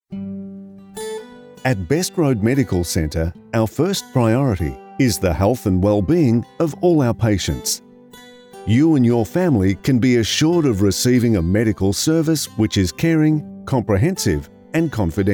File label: Telephony